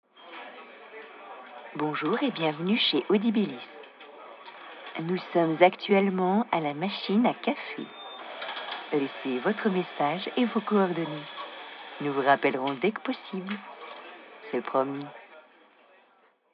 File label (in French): repondeur a la machine cafe